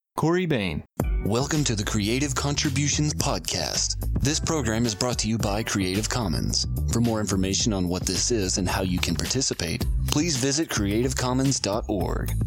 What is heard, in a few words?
Radio